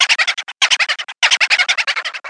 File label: Boo (Taunt); Mario Party 6